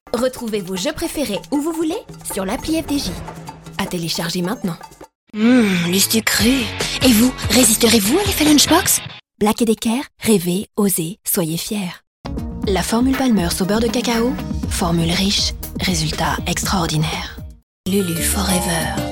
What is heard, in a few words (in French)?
Signature voix jeune femme ados